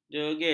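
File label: "jegil", Malay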